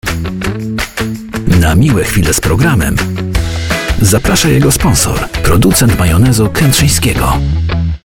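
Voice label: voice male advert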